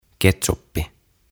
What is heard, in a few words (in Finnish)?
"Ketsuppi" "Ketchup"; OTAVA KONSERNI; tuhatsanaa; aantamisohjeet suomeksi